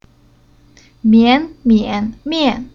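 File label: "Mian", Chinese syllable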